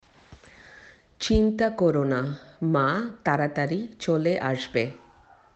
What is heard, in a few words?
Bangla